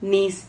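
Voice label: "nis", Spanish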